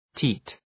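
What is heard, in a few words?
"teat", English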